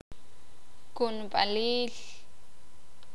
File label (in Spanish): "Medicina remedio", Sakapulteko